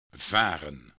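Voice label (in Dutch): "varen", Dutch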